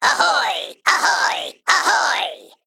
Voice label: Male Mech

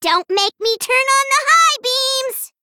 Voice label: Female Gnome Threaten

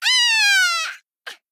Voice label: Female Gnome Death

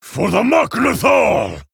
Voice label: Male Orc Ogre Attack

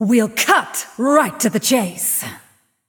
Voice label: Female Nightborne Play

